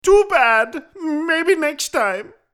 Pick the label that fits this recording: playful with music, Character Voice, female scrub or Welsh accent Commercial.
Character Voice